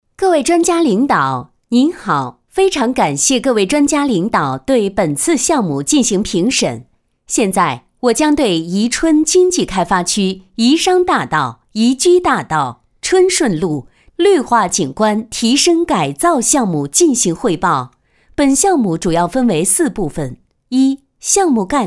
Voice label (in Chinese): 女 汇报 工程改造